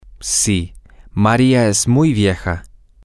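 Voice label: yes Maria is very old, Spanish, male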